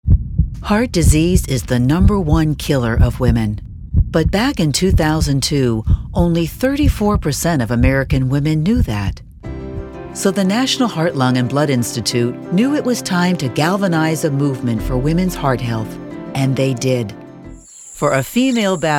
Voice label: Narration